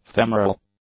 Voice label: "femoral", english; adjectives